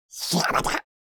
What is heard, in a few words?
Alien creature command or warning